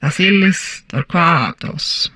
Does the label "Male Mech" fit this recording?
No